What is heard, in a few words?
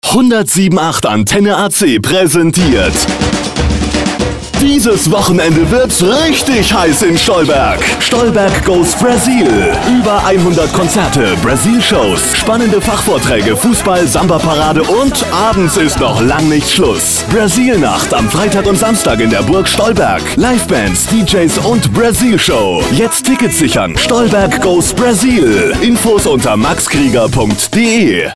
radiospot; stolberg goes